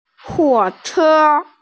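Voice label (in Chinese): "huo3che1", Mandarin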